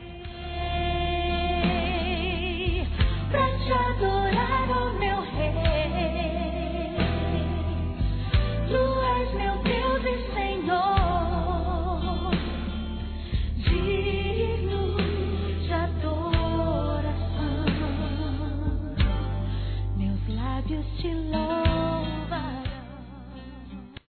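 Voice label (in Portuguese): ANO NOVO NEUTRO Masculino; Telemensagens; Natal e Ano novo; Misto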